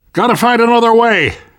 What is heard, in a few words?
world hospital, namvet, survivor voice